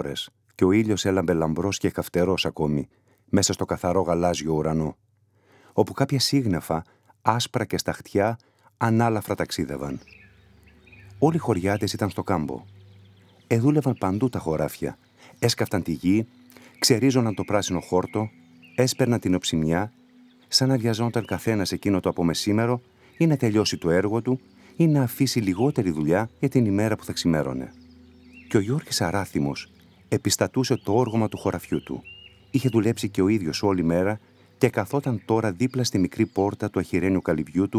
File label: SFX, audiobooks; MyAudiobooks; Κατάδικος